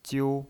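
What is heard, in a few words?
"jiu", Mandarin Chinese, first tone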